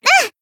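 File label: Voice damaged, Japanese; ciel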